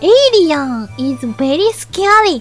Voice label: alien scary